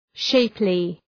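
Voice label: "shapely", English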